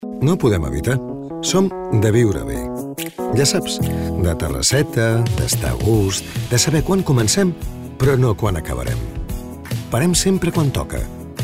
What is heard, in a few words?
male catalan mall comercial